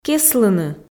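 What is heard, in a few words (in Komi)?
"кеслыны", Komi, female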